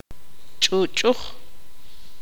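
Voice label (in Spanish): "liso", Tz'utujil, female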